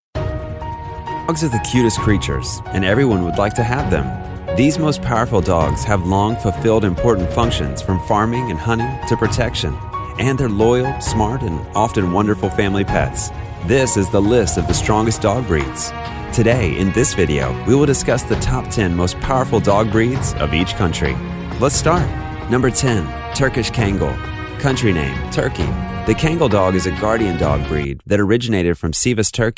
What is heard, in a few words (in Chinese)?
外籍男英 外语 美式英语 大气科技感 科技